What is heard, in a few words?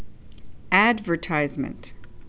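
"advertisement", Canadian female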